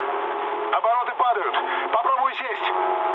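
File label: Russian damaged engine mood low, speech; War Thunder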